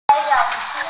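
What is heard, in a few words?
"na2", Mandarin Chinese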